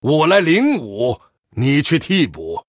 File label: Male Human MIRROR GREETINGS; zh